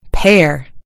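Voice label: "pair", American English; gcompris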